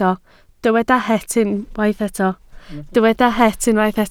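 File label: "het" Welsh, spoken word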